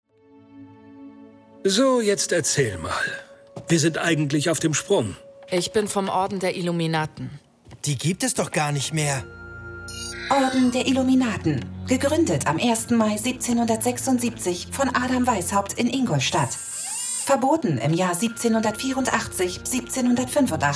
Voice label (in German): Hoerprobe Agentin